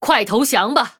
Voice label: Female Human Attack, zh

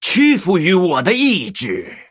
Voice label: Male Blood Elf Attack; zh

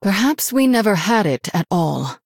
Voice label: Female Human; en

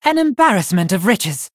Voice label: Blood Elf ERROR HAND FULL; en

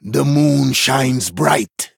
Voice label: Male Dryad Start; en

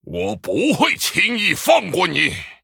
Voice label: Male Human, zh

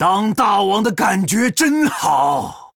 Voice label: Male Troll Picked; zh

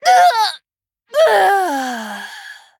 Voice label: Female Night Elf Death, zh

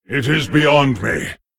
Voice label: Male Lich ERROR PLAY; en

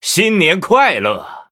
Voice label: Male Blood Elf HAPPY NEW YEAR; zh

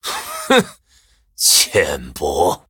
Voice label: Male Human Mirror Start; zh

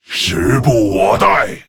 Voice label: Male Lich; zh

